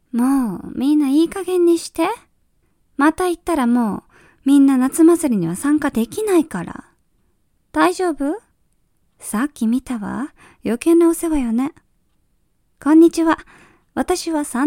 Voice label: Japanese, Female